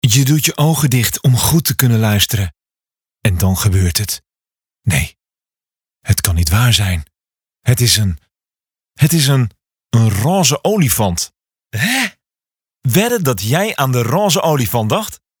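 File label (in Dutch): voice-over warm close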